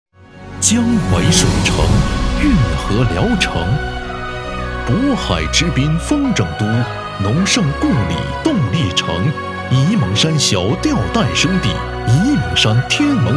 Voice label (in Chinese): 男 电视台; CCTV综合; 山东